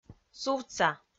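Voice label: "сувтса", Komi-Zyrian, female; audio dict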